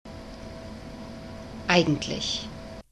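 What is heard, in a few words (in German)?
"eigentlich", German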